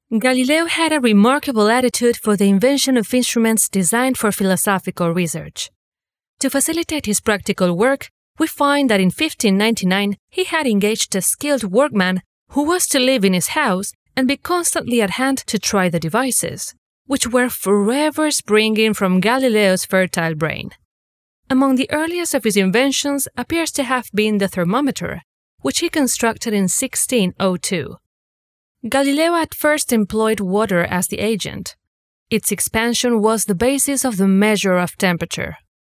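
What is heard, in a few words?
Spanish, female, English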